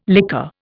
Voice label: "liqueur", Indian

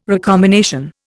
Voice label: "recombination", Indian

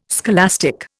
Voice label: "scholastic", Indian

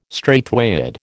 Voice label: "straightway", American

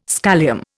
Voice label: "scholium", Indian

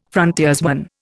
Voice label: "frontiersman", Indian

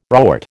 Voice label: "rort", American

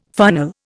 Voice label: "funnel", Indian

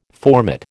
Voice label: "formate", American

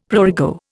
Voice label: "prurigo", Indian; Dictionary

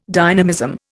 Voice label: "dynamism", Indian

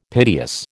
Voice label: "piteous", American

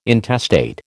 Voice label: "intestate", American

